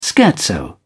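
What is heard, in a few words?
"scherzo", British English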